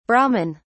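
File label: "brahmin", English, British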